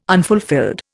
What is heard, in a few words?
"unfulfilled", Indian